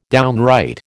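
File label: "downright", American English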